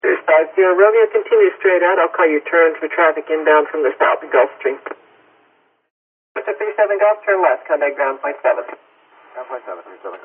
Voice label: Air Traffic Control Speaks To Pilot Over Intercom; PA Announcements; Air Traffic Control Voice; Pa Announcement Sound Effects